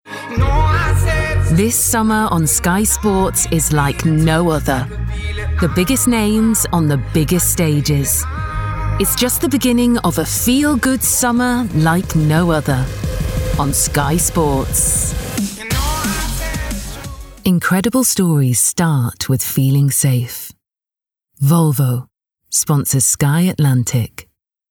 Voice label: Promo